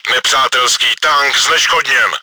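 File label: enemy killed by player, чешской озвучки; Nepřátelský tank zneškodněn!; Вражеский танк обезврежен!; Уничтожение врага игроком; World of Tanks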